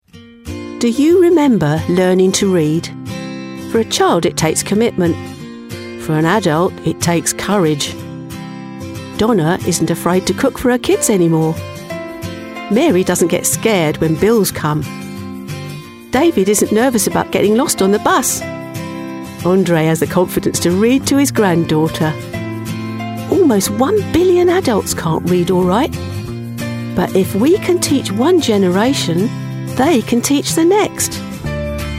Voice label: british accent, english female voices